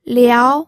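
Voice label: "liao", second tone, pinyin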